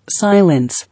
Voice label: "Silence", English

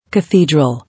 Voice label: "Cathedral", English

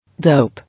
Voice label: "Dope", English

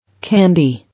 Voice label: "Candy", English

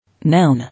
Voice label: "Noun", English

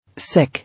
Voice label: "Sick", English

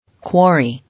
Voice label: "Quarry", English

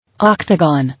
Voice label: "Octagon", English

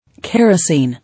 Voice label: "Kerosene", English

